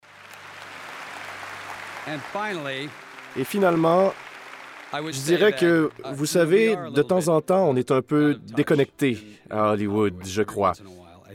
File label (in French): surimpression vocale